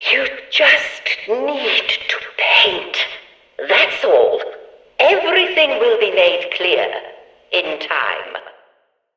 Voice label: Background Announcements